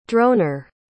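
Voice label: "droner", English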